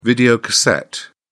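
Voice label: "videocassette", British English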